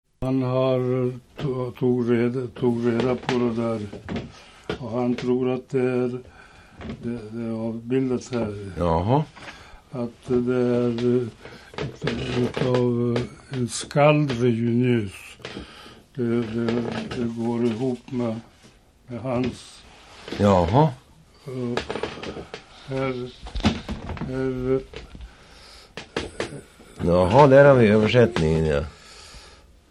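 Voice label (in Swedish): Säby Västmanland, dialekter; Svenska sagor; Folkminnen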